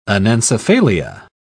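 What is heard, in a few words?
"anencephalia", British English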